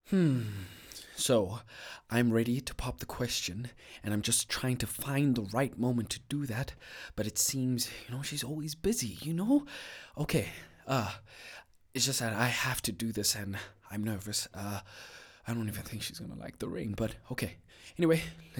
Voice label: Conversational English

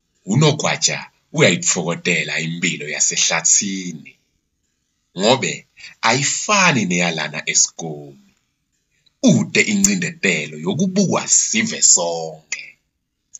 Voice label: ZULU

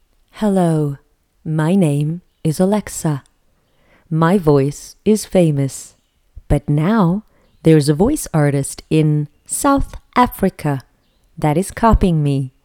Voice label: American accent

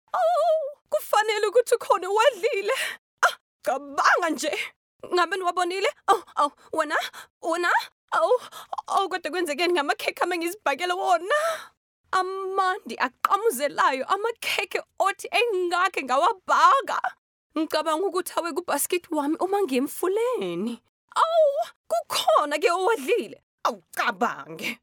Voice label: CHARACTER DUB; BBC; PETER RABBIT

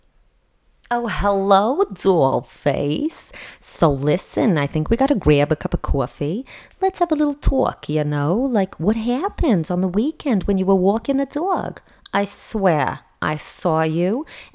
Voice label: NEW YORK ACCENT

